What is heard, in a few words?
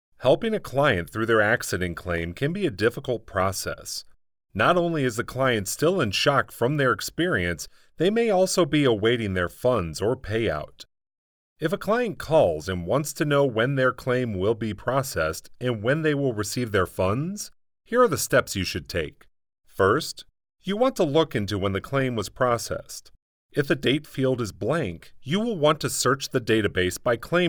Insurance Explainer Sample